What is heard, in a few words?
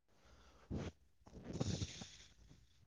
voice message